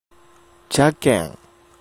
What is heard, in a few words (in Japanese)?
"jaken", hougen; じゃけん; 「だから〜」の意。; 方言